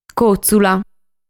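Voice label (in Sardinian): "Còtzula", Sardinian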